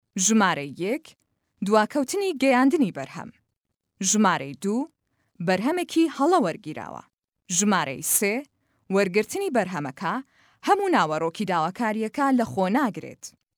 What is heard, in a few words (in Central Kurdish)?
Sorani